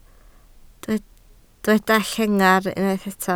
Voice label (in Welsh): "llengar", Welsh